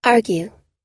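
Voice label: "argue", English pronunciation; long u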